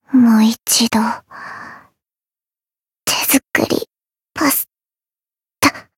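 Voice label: Voice Japanese; nagi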